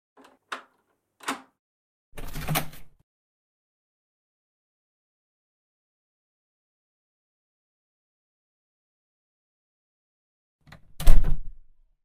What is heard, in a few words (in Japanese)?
おかえりなさいあなた ASMR; 新妻性活～えっちなおまんこ声はあなただけ～